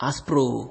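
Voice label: "aspro", Nigerian Pidgin, male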